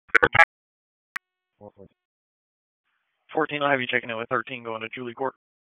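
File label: Police District Dispatch, p25; Raleigh Police Southwest District Disp; wake p25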